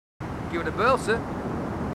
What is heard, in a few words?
marines, marine aussie; h2dialogue